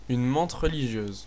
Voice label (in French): mantis desc, fr; insects; tuxpaint stamps; animals